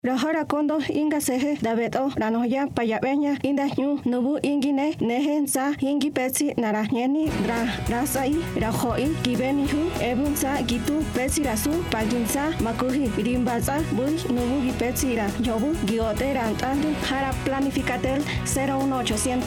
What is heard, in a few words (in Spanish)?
Otomi